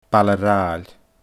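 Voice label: "Baile Raghnaill", Scottish Gaelic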